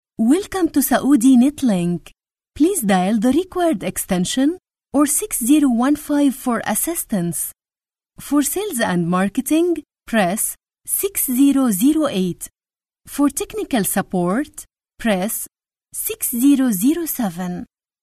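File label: Arabic, female, English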